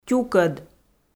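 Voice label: "тюкӧд", Komi, female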